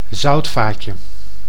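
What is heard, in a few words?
"zoutvaatje", Dutch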